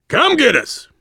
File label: world farmhouse npc, voice, biker; Left 4 Dead 2; survivor